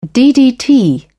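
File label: "ddt", en, British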